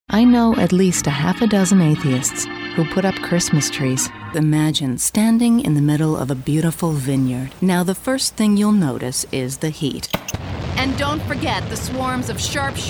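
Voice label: commercial voice over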